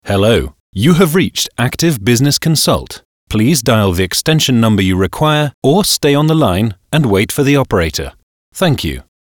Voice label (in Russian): golosovoe privetstvie; Active Business Consult; ivrplus